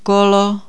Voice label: wheel, Czech